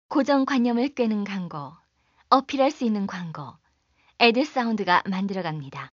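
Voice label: Korean female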